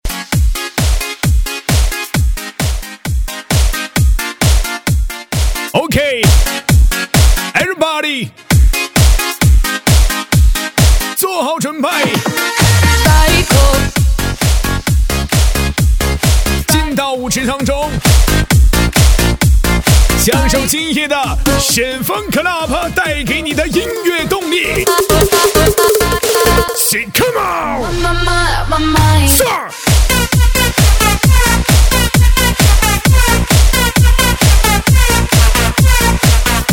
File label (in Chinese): 男 夜店商业喊麦, 喊麦激情 夸张震撼